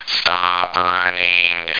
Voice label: "stop running", Robots; Same Game

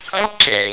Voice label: "ok", Robots; Same Game

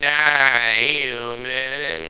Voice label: "die human", Robots; Same Game

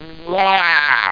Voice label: "wow", Robots; Same Game

